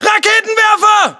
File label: US threat rpg, battlechatter; cod modern warfare 2